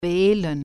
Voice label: "dial", German